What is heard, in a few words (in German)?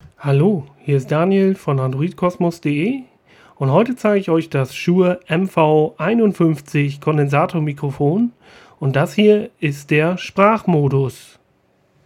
Shure MV51 sprachmodus